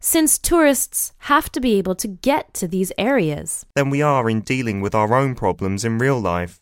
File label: Voice 48kHz 24bit; TAS2780